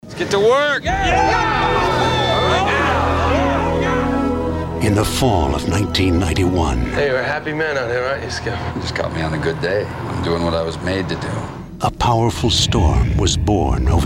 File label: Male, promos